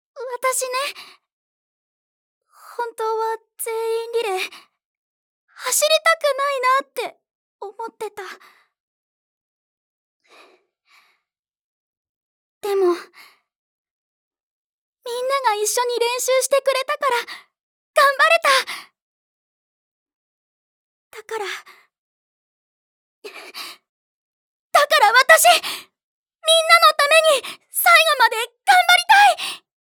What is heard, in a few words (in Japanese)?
VS 女子高生 控えめ